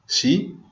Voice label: yes, venetian